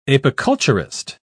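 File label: "apiculturist", British English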